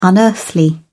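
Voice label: "unearthly", British English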